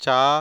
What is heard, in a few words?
"ca", consonants